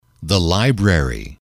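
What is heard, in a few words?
"the library", English, male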